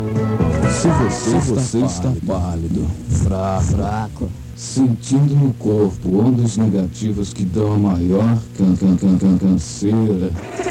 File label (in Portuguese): FM comercial; Difusora FM Ituiutaba MG